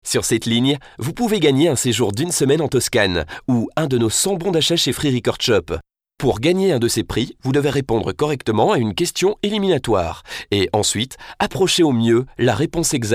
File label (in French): Voix francophone